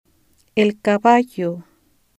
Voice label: horse, spanish

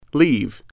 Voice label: leave, English

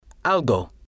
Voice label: "algo", spanish

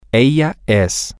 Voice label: She Is Permanent M, spanish

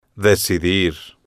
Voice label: "decidir", spanish

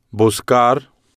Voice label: "buscar", spanish

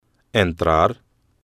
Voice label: "entrar", Spanish

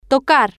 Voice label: "tocar", spanish

